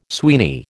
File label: "Sweeney", American